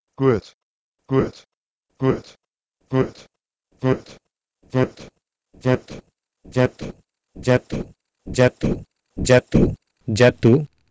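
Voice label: Proto-Indo-European gwet to Sanskrit jatu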